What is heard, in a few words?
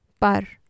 "par" feather, Urdu, F